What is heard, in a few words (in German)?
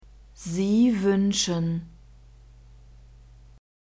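"sie wünschen", German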